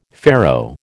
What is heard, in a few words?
"Pharaoh", American